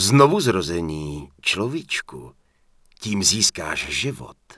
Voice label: Human Male Heal Me; wowpreklad; czwow; HumanVocalMale

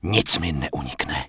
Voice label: Night Elf Male Official NPC Farewell; wowpreklad; czwow

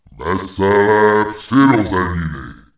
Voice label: Abomination Pissed; wowpreklad; czwow

